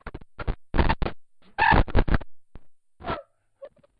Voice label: garble